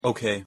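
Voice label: "ok", English pronunciation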